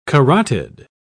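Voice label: "carotid", British English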